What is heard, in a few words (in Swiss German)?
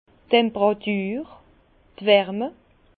"temperatur", Bas Rhin; vin; temperature